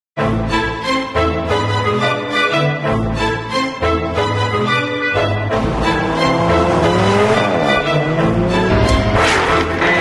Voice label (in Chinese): Mandarin; Deep Cool Discovery; Taiwan